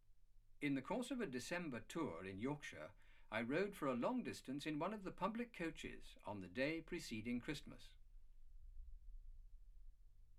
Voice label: male speech english